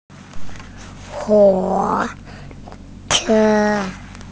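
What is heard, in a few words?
"huo3 che1", Mandarin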